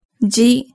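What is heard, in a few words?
"ji", Japanese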